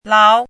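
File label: "láo", Mandarin Chinese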